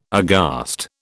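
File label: "aghast", British English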